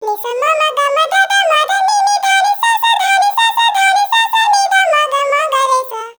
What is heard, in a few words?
pitch shift voice; usb uvi workstation